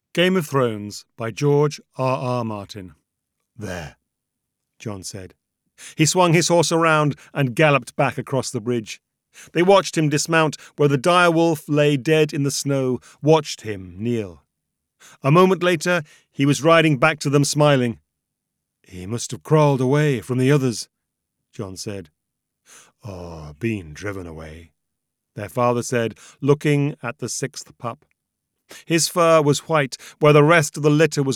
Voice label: Audiobook